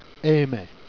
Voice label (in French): "aimer", Jèrriais